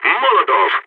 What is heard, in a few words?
t molotov, balkan; vo